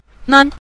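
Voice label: "nan", Mandarin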